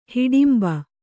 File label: "ಹಿಡಿಂಬೆ", Kannada